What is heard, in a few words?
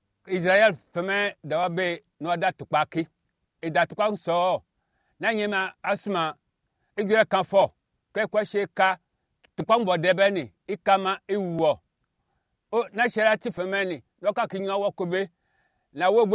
Anufo; Dieu est avec nous